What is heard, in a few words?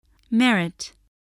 "merit", voice, spelling